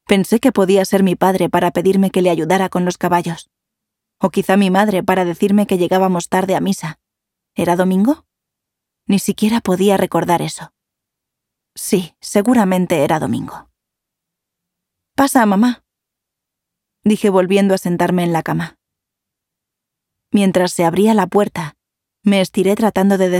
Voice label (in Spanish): audiolibro; la historia de clarice ii